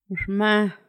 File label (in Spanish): durmió, Paipai; Vocabulary; INALI